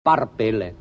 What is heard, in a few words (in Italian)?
"parpélle", genovese; par'pelle/ (palpebre)